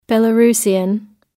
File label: Belarusian